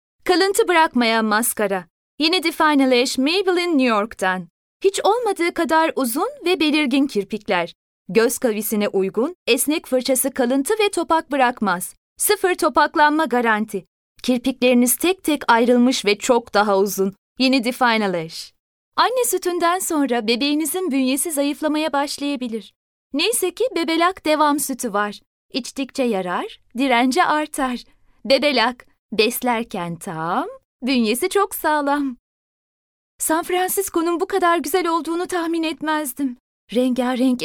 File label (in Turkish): KADIN